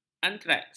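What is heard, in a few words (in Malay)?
"antraks", Malay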